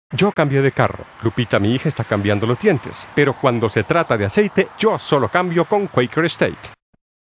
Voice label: Warm; Quaker St